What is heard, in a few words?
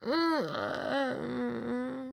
sleep, woman; Stalker Anomaly; characters voice human states